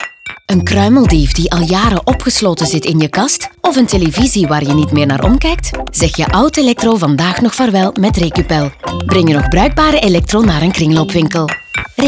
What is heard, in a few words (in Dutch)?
kringloopwinkel radio; recupel